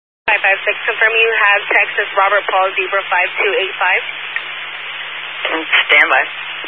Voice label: Highway Patrol, Phoenix Metro West Area, scanner